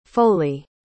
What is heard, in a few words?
"foley", pronounce